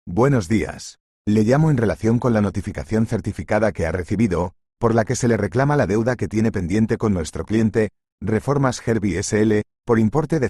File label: audio call